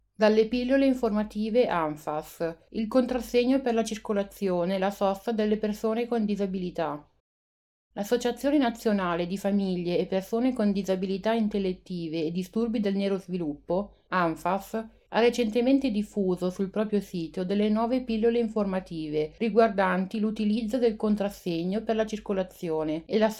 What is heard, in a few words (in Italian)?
Pillole informative; Anffas